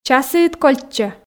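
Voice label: часiыд кольччӧ, Komi, female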